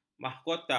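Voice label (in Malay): "mahkota", Malay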